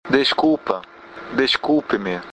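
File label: sorry, Brazilian Portuguese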